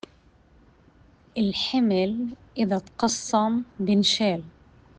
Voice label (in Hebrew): פתגם עממי בערבית מדוברת; ִלְחִמִל אִזַא תְקַסַّם בִּנְשַאל – إلحمل إذا تقسّم بينشال; תרגום: אם מחלקים את המשא הכבד – אפשר לשאת אותו.; משפט קטן עם משמעות גדולה.